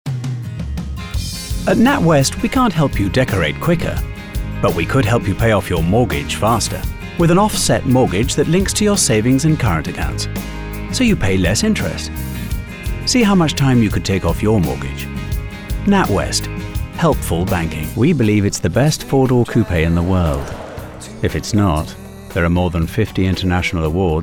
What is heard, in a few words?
commercial